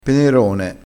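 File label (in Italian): "penerone", dialettale crespinese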